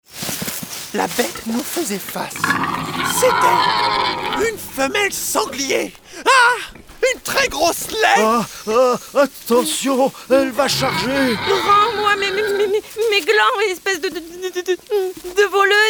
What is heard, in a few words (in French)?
Histoire